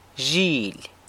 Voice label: "zhily" sheep, San Lucas Quiavini